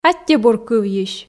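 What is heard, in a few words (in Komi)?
аттьӧ бур кывйысь, Komi, female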